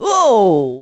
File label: "woah" cartoon; ooh ahh